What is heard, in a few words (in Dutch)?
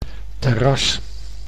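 "terras", Dutch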